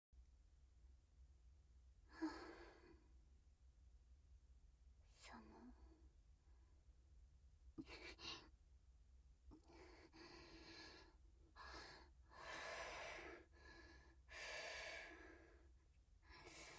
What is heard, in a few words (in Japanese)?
SE無し, ASMR; 【耳舐め】じゅぽ↑じゅぽ↓ご奉仕耳舐めJK拾った～あなたに依存する薄幸家出娘～